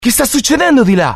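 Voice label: curious, Italian; mohaa; dialogue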